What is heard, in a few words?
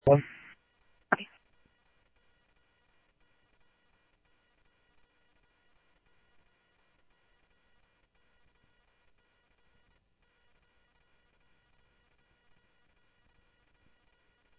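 Simulcast police department, scanner audio trunk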